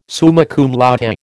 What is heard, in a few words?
"summa cum laude", American